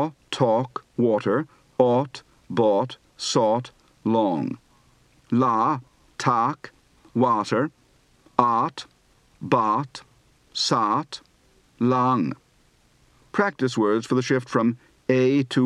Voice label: Irish, Accent

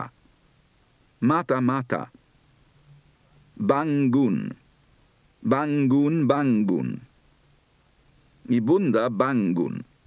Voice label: Indonesia And Singapore, Accent

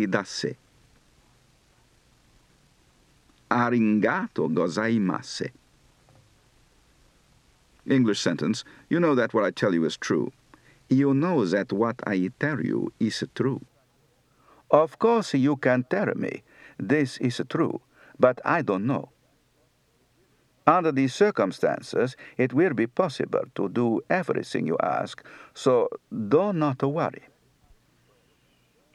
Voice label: Japanese, Accent

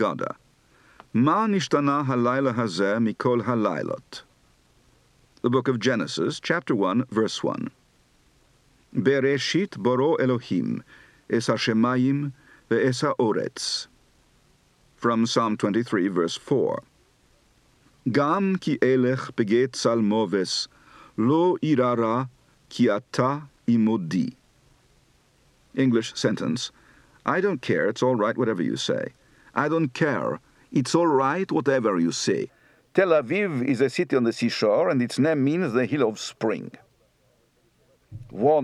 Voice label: Hebrew, Accent

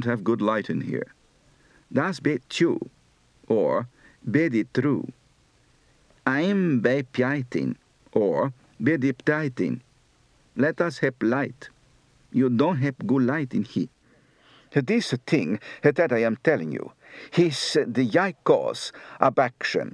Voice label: Burma, Accent

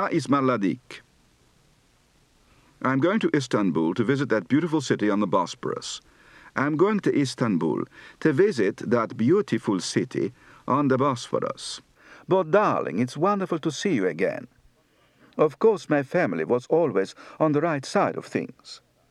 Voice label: Turkish, Accent

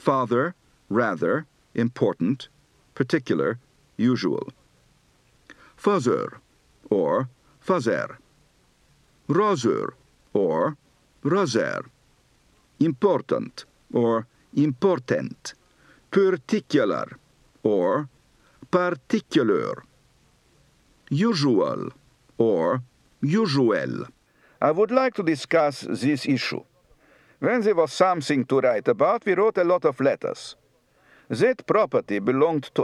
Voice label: Romanian, Accent

